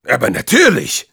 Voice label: Spy cheers, de; "Aber natürlich!"

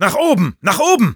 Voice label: Sniper move up, de; Nach oben, nach oben!

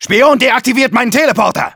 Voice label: Engineer auto attacked by spy, de

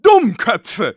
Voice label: jeers, de; "DUMMKÖPFE!"; Medic

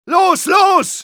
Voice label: go, de; Medic

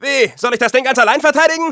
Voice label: Scout help me defend, de

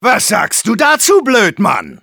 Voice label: taunts, de; Engineer

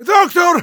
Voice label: Spy medic, de; "Doktor!"

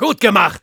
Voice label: Soldier good job, de; "Gut gemacht!"

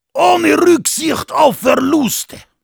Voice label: Heavy special completed, de

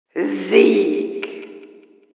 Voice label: Announcer victory

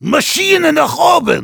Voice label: Heavy move up, de; "Maschinen nach oben!"

